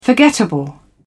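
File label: "forgettable", British English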